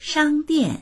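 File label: "shang1dian4", Mandarin Chinese; 商店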